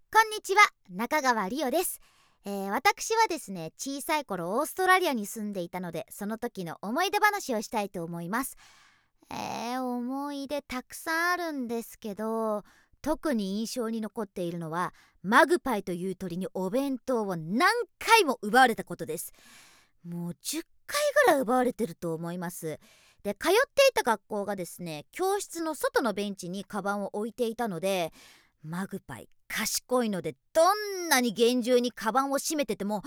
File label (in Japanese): フリートーク オーストラリアでの生活